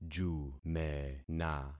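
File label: "jumena", concatenated syllables; Affixing experiment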